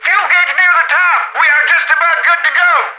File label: radio in transit; Left 4 Dead 2; left4dead2 dlc3; planepilot; npc